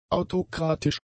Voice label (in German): "autokratisch", German; adjectives